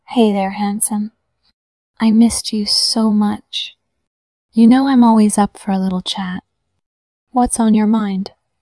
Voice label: voice message